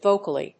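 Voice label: "vocally", English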